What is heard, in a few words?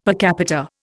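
"per capita", Indian English; Dictionary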